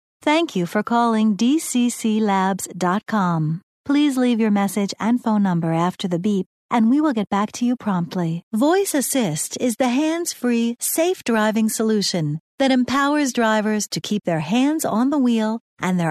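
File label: American English, female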